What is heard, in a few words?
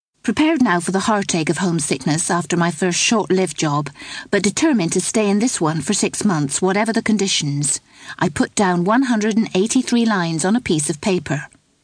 talking books; Novels